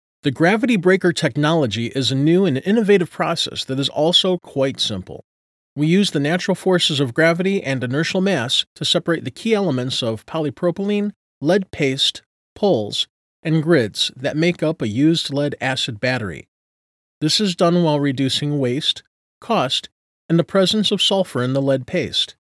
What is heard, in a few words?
Explainer Demo